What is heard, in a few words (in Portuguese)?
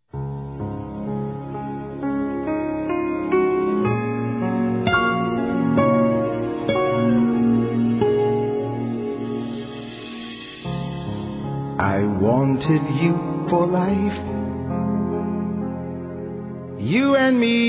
Perdão Romântico Feminino; Reconciliação